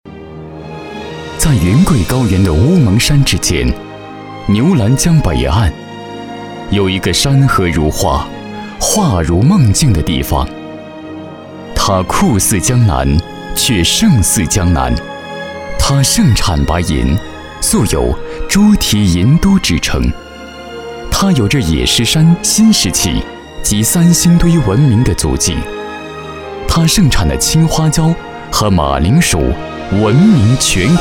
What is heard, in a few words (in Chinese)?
男, 党政宣传; 鲁甸